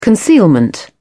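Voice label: "concealment", British English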